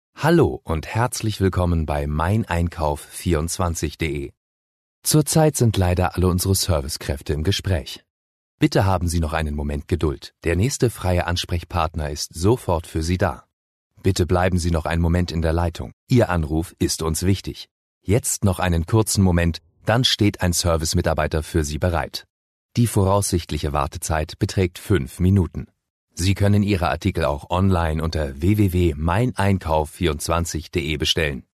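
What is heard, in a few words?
German, male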